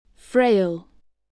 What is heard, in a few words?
"frail", English voice, spelling